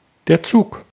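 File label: "Zug", German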